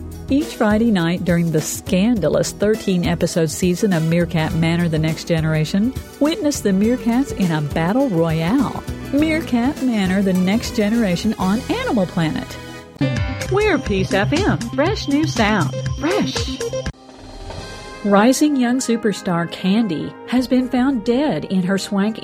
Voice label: BROADCAST; KUHL VOICE-OVERS